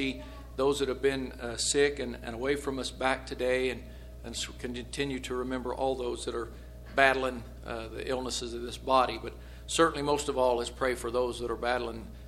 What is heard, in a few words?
Broadcast Prayer